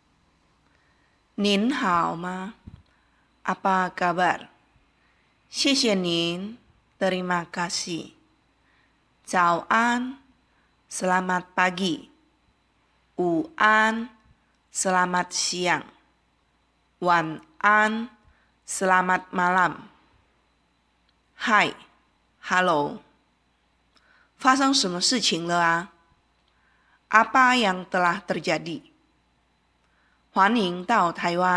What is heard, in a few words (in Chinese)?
印尼語日常問候用語